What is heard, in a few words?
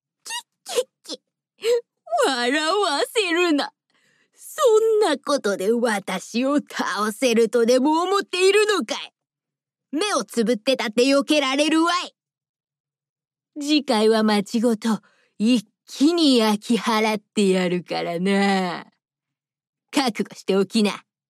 japanese impersonations